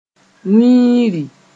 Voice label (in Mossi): "wĩiri", Moore